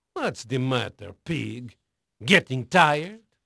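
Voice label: Portuguese male, voice; Pirates Of The Caribbean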